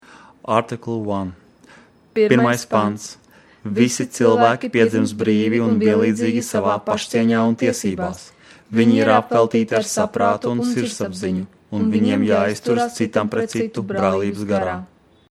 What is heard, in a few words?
Latvian duo